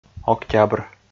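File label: "октябр", Komi, male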